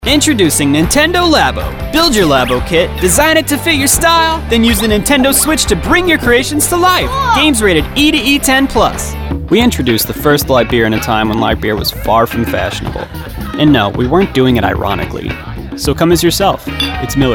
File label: Commercial